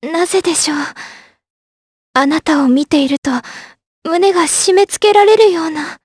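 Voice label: voice dia, voices heroes, Japanese; Talisha; kingsraid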